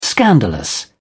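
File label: "scandalous", British English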